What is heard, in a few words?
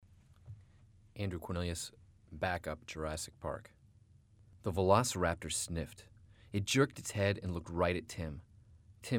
Audiobook